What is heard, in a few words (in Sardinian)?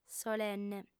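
"solenne", female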